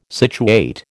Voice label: "situate", American

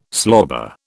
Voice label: "slobber", British

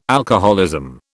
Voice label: "alcoholism", British

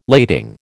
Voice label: "lading", American

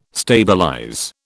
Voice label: "stabilize", British

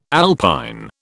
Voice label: "alpine", British

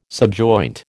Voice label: "subjoint", American

